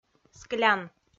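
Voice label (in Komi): "склян", Komi, female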